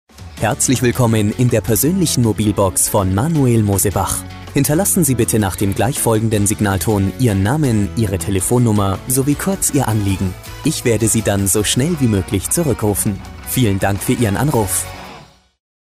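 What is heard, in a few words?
german male ivr, German Accent Male Voice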